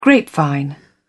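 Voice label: "grapevine", British English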